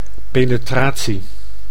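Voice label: "penetratie", Dutch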